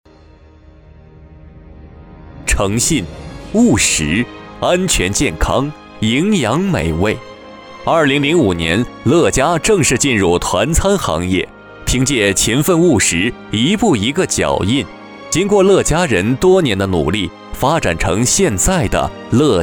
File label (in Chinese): 男 企业宣传